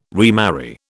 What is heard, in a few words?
"remarry", British